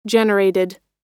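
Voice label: "generated", US English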